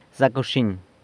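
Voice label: "Zac wxiny!", Good evening!, San Lucas Quiaviní Zapotec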